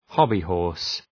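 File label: "hobby-horse", English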